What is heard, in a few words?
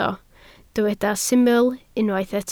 "syml", Welsh